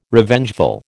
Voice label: "Revengeful", American